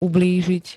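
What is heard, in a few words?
"ublížiť", Slovak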